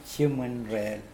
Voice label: "jimwenrehl", Mokilese

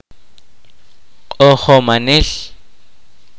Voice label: kaqchikel; Musician

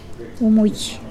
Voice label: vomit, Mokilese

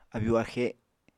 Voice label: "abyu-axe", apatani

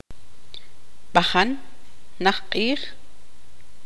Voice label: tzutujil; cuando

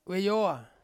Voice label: "weyoa", Ansus; fog

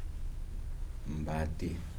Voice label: cassava, Kibembe

